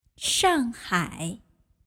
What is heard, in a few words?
"shanghai", Chinese